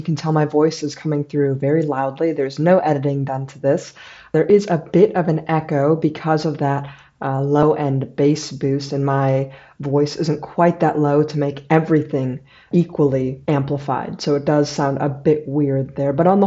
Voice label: Creative SXFI Air microphone

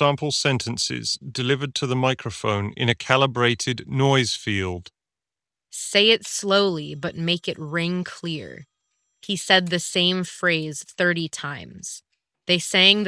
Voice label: Balanced EQ office; SteelSeries Arctis Nova Elite

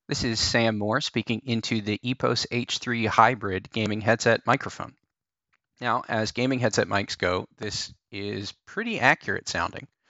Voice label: mic sample; EPOS H3 Hybrid

